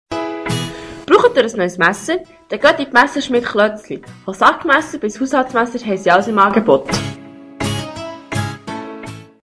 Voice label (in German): Werbejingle Messerschmiede; Kloetzli; Bolligen